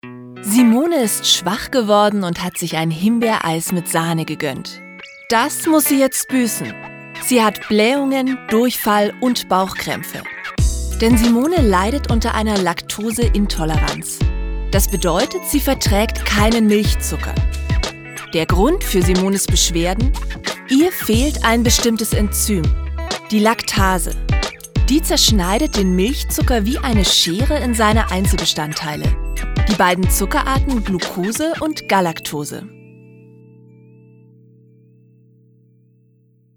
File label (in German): Erklaervideo vertont; Erklärvideo: Laktoseintoleranz